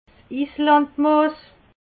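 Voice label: "islandmoos", Alsatian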